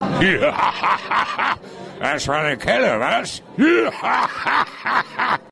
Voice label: German; Bud Spencer